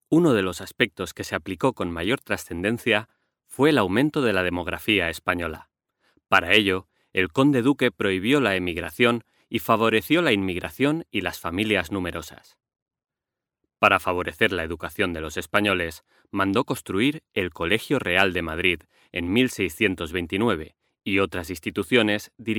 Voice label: locutor spanish voiceover